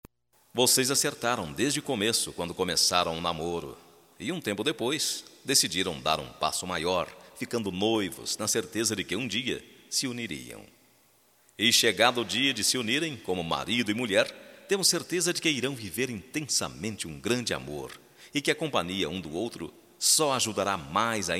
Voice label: CASAMENTO M, Só Voz, masc; Telemensagens; Telemensagens 2017

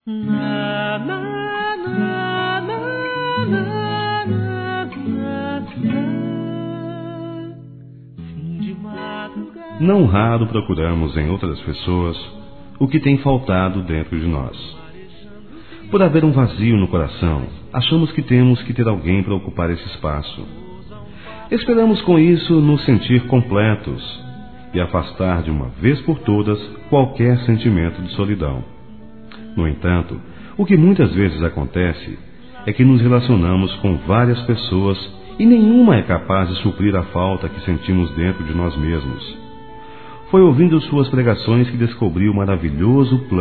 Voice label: Aniversário Pastor Masculino, Telemensagens; ANIV. Evangelico

